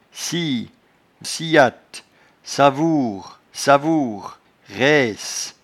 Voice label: "scie", patois jura